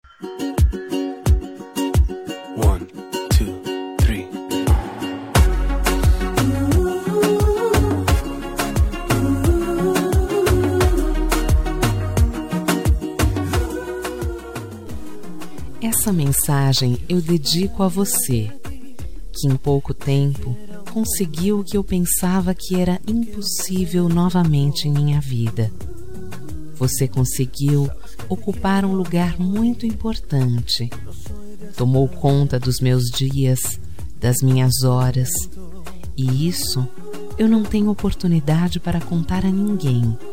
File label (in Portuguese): dia dos namorados amante, voz feminina; dia dos namorados amantes voz feminina